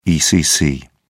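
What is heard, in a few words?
"ecc", British English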